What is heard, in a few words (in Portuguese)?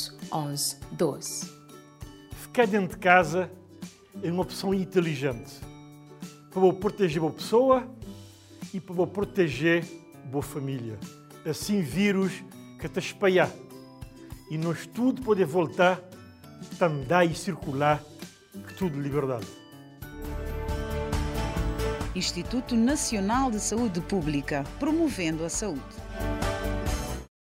Spot cuidados em tempo de quarentena saude mental; covid19